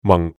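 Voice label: "mang4", Cantonese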